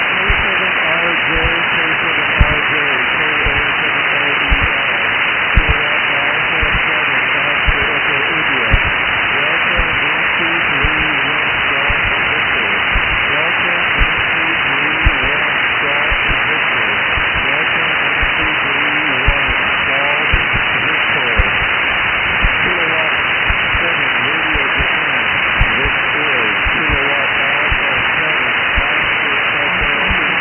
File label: voice exchange unfiltered; optical comms